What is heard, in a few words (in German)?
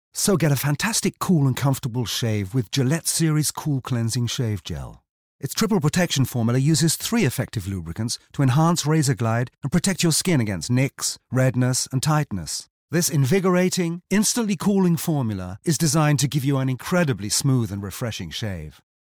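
British englisch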